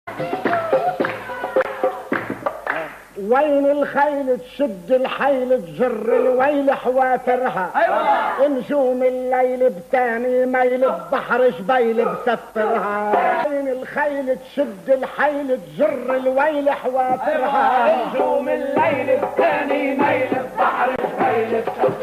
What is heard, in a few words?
arabic